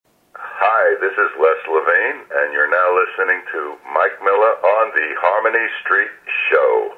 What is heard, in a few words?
promo